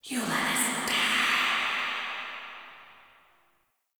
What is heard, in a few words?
Whispers; Cave; Ambience; Orokin; Warframe; Stalker